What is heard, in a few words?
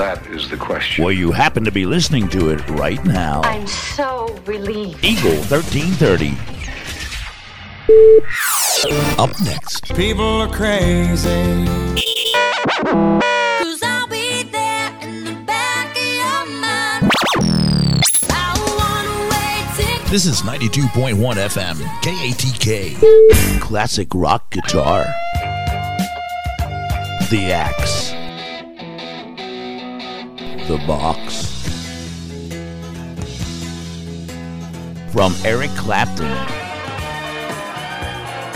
radio id imaging